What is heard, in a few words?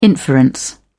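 "inference", British English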